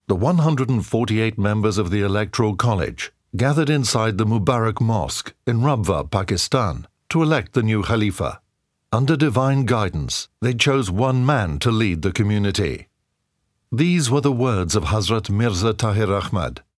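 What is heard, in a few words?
British English documentary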